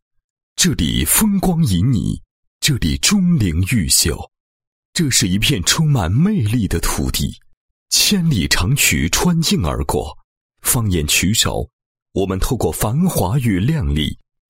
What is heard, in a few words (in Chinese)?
男-党建-飘扬的旗帜 党建工作汇报, 配音案例